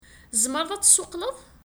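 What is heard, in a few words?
Kabyle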